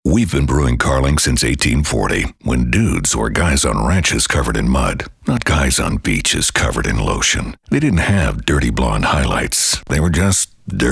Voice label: men commercial

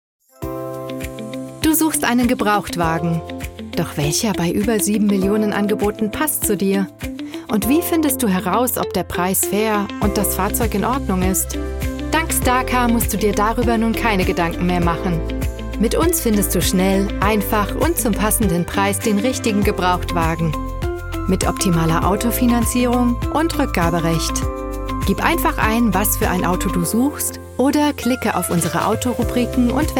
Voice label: german commercial; GERMAN » Warm, soft, confidence-inspiring, clear voice.

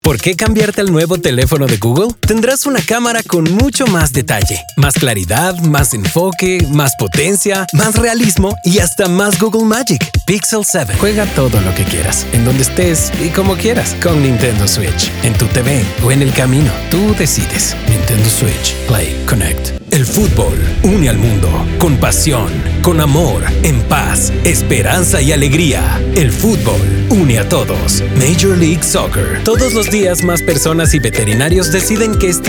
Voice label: spanish commercial; (I) Neutral Spanish Speaker. Bilingual with a warm, versatile, and natural tone. From corporate to conversational.

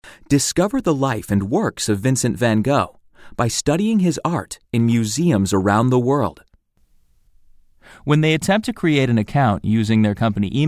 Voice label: men elearning; Hip millennial. Warm and enthusiastic. Pragmatic and bold, with a Tech-feel. Your buddy, or a calm voice of reason.

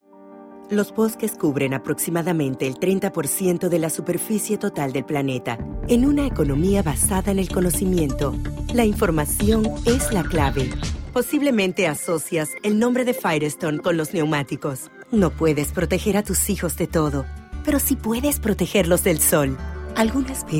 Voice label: spanish narration; (I) Textured and trendy Spanish language voice.

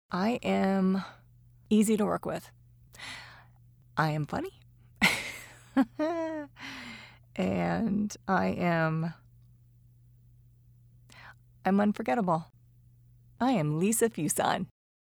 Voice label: women mini demo

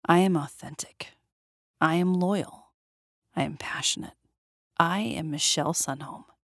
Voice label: women mini demo

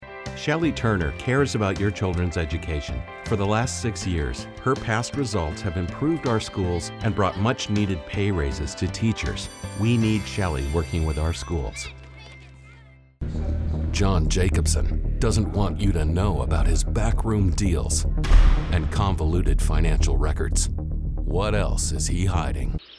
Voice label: men political

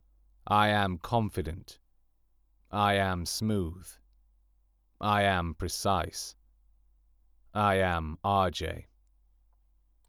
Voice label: men mini demo

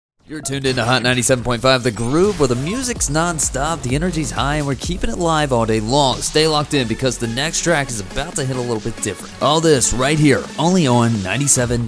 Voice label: men radio imaging; Strong, confident male voice. Specializes in commercial and anime voiceover. Versatile in character roles, especially strong lead roles.

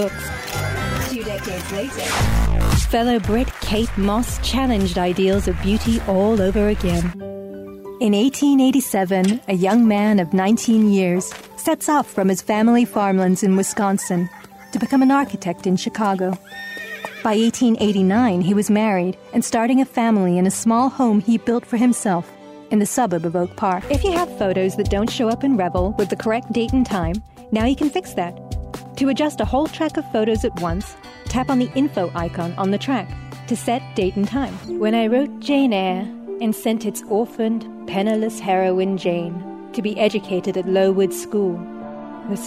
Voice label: british narration; BRITISH » Light British voice. More of a hybrid/Mid-Atlantic.

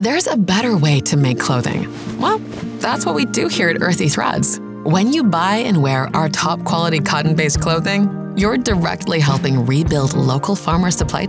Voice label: women corporate; Deep, smooth, dynamic, versatile, warm, maternal, rich, and authentic.

